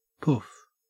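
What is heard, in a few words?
"poof", Australian English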